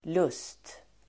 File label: "lust", Swedish